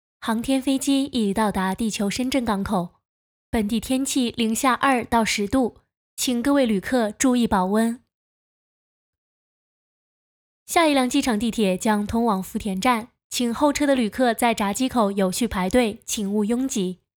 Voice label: 机场广播

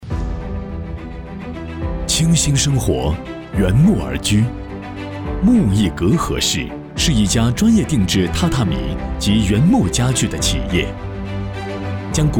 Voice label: 专业配音试音宣传片; 一分钟

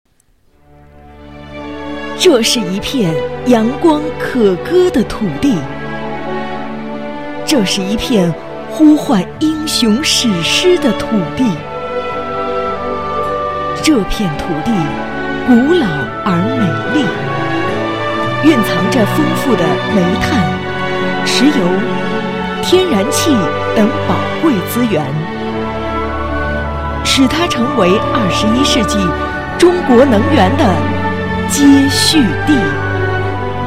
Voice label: 专题片配音

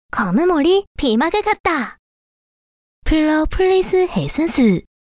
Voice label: 韩语

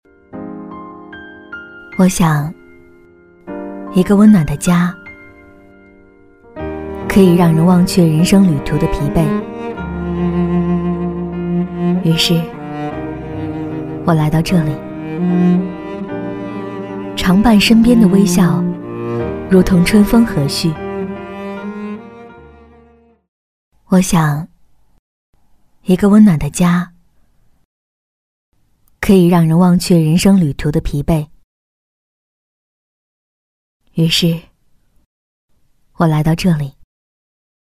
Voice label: 走心形象片独白

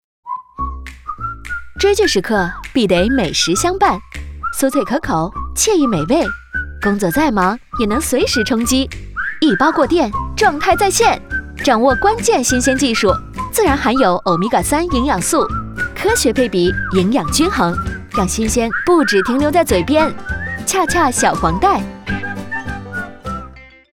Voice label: 广告阳光俏皮; 恰恰小黄袋

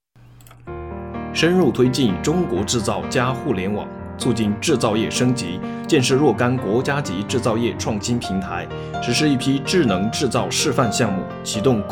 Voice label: 节目宣传

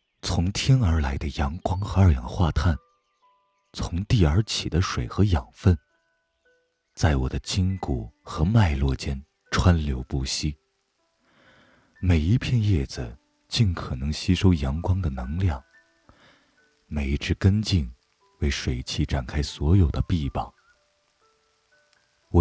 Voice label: 纪录片温暖低沉男; 万物滋养

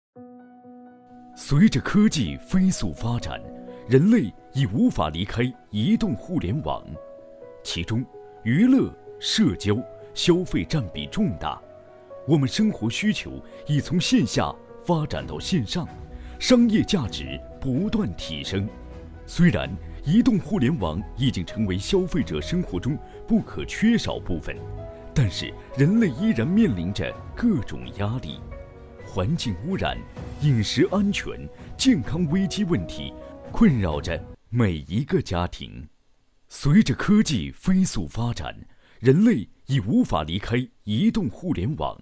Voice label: 宣传片大气朝阳

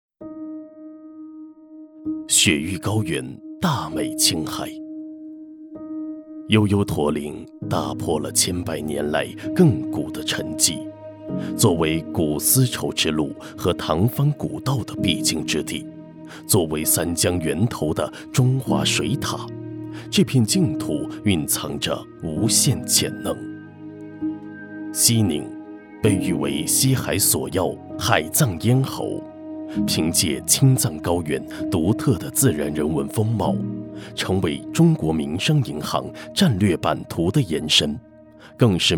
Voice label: 纪录 高级; 民生银行

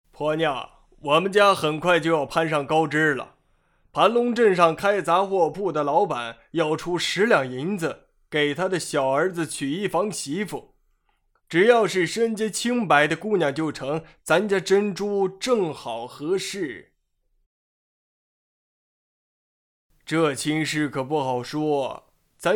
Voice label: 男中年

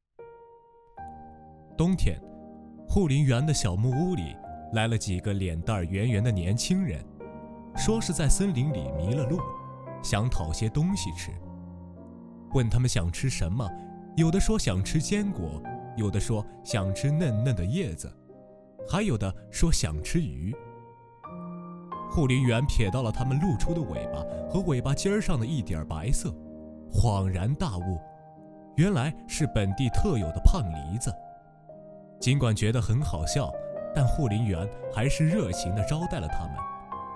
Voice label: 睡前故事

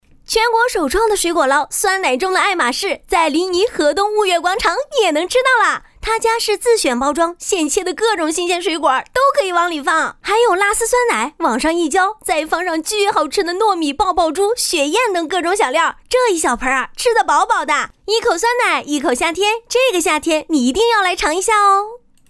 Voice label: 探店 风

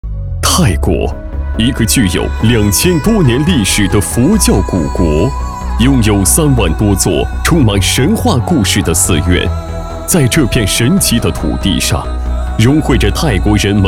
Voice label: 男 音乐干声; 乳胶马甲

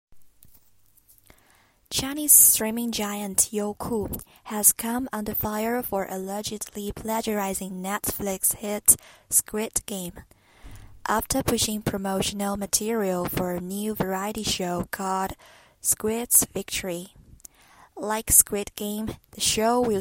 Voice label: 英语录音

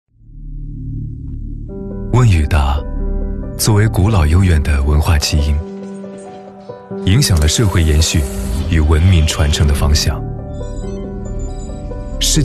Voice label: 温暖 自然; 知乎

